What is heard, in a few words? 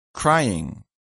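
"crying", English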